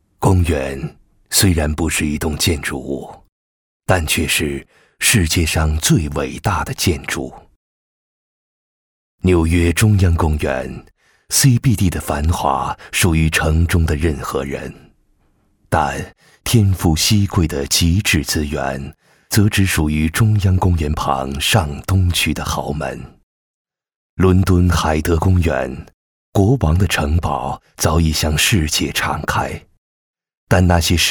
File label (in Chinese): 男声 专题; 信达公园里